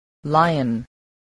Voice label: "lion", spelling